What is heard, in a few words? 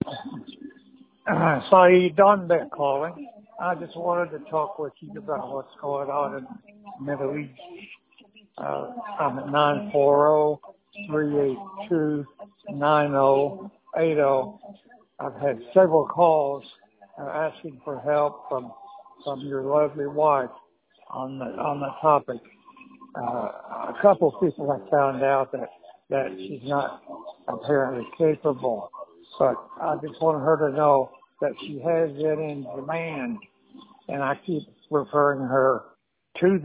voicemail